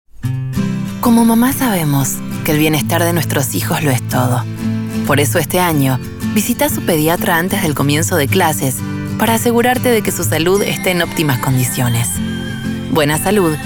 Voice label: Caring Motherly; Carinoso Mama